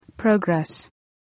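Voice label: "progress", English word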